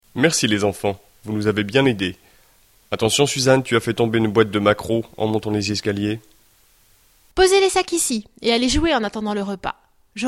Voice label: dialogue, French